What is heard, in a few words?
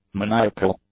"maniacal", English; adjectives